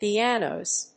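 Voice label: "Pianos", English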